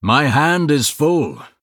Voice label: Male Blood Elf ERROR HAND FULL

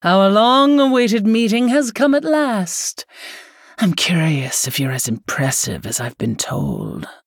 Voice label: Female Human; Lady Prestor

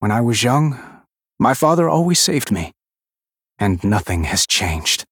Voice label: Male Human Victory

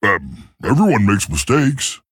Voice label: Male Tauren Oops

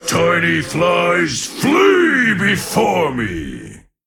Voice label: Male Frog Play

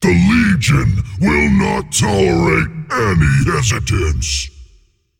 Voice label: Male Demon Bark; Rathorian

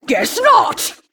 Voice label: Female Jinyu Attack

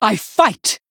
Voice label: Female Human, Attack

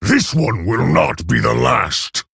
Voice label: Male Orc Attack

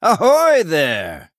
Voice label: Male Human Attack

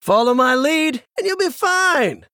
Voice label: Male Human; Leeroy Jenkins

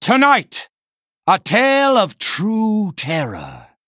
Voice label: Male Human Play

